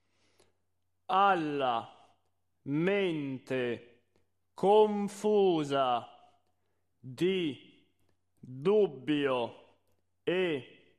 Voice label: monotono

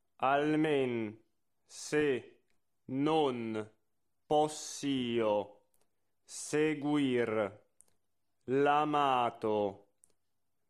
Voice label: monotono; Bellini; Almen se non poss io

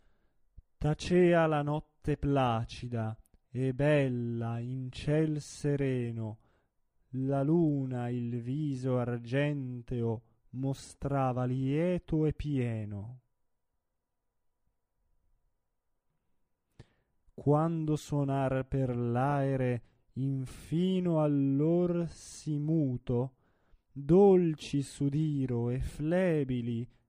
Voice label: espressivo; Il trovatore

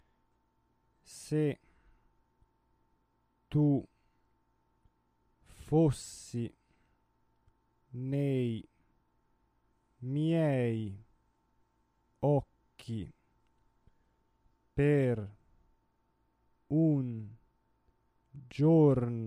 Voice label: monotono

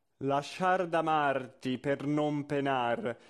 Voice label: espressivo

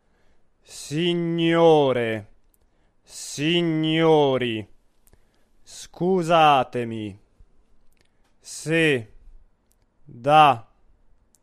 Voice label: monotono; Pagliacci

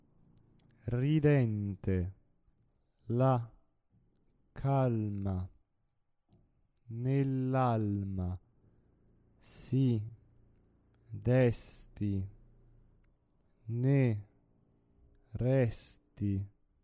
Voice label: monotono

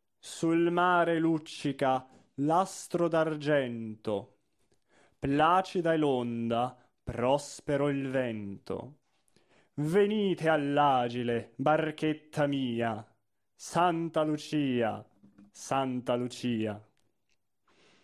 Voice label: espressivo; Cottrau

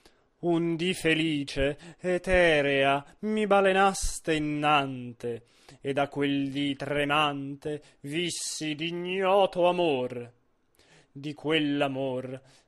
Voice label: espressivo; La traviata

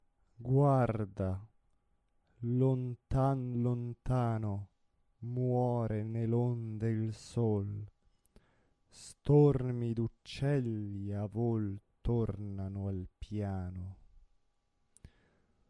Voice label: espressivo

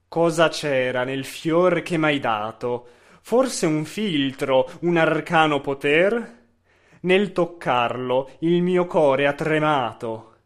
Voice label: espressivo

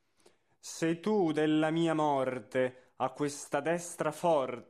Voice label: espressivo

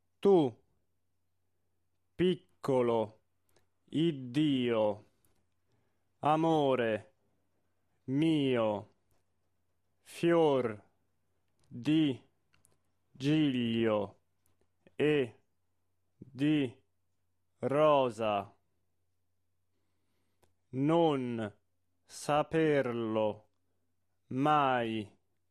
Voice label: monotono; Madama Butterfly

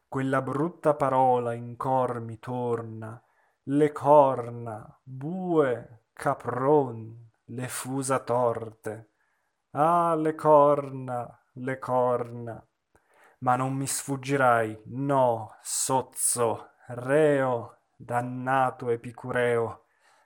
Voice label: espressivo; Falstaff; E sogno O realta

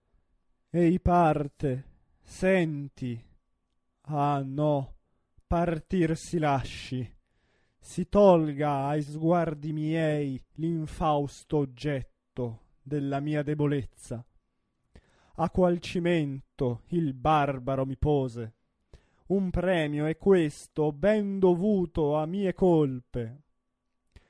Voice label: espressivo; Cosi fan tutte

